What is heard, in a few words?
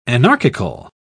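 "anarchical", British English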